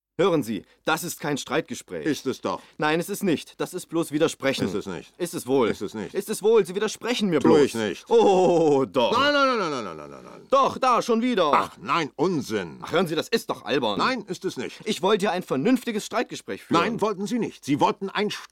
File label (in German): Hoerspiel; Demo Hörspiel mit Synchronkollege Christian Rode (Auszug aus Monty Python-Sketch "Das Streitgespräch")